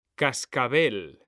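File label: "CASCABEL", Spanish, male; mekanta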